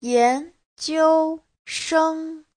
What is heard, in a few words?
"yan2 jiu1 sheng1", Chinese; 研究生; nghiên cứu sinh